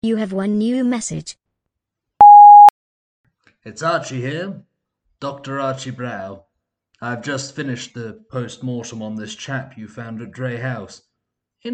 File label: voicemail; Free game; digital murder mystery game